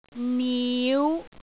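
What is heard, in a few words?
"niu5", Cantonese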